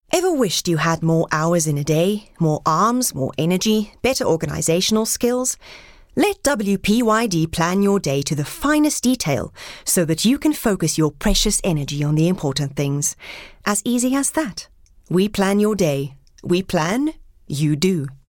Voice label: English Bright

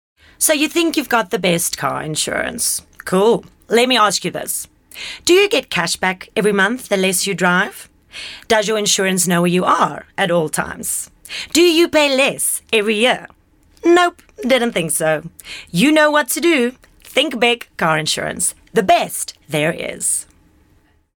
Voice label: English Conversational

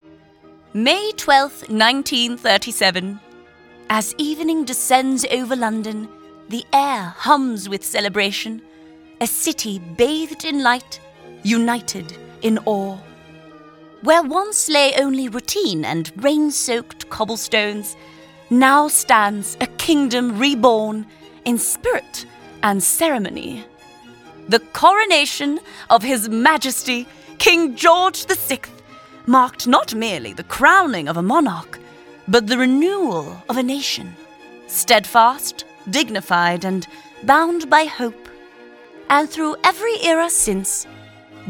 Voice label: Accent British RP

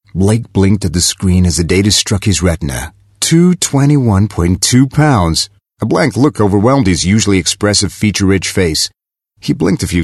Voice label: Accent American

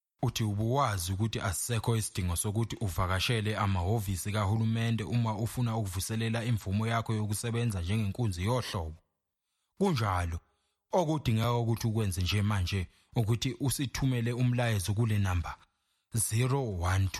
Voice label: Zulu

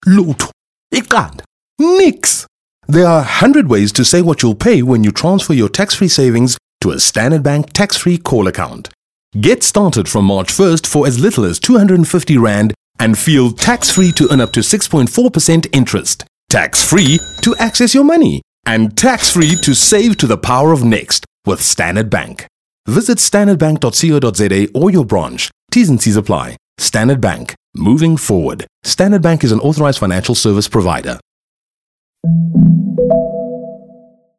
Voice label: English Bright

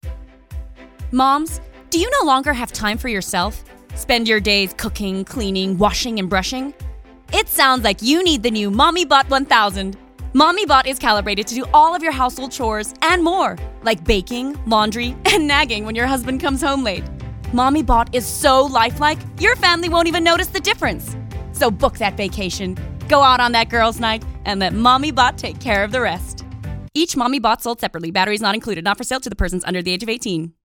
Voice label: Accent American

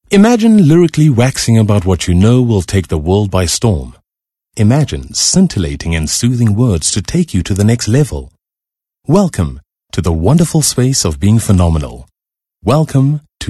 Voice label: English Conversational

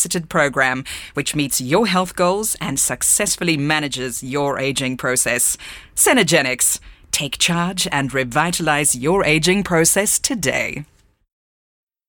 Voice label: English Medical

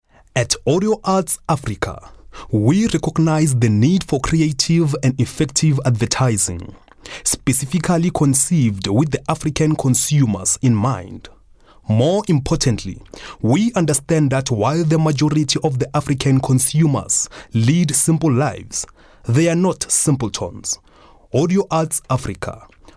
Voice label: English Corporate

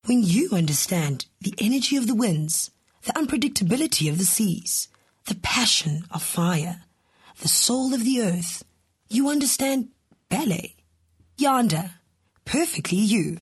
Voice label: English Soft Sell

